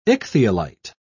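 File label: "ichthyolite", British English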